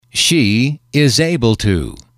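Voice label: "she is able to can", English, male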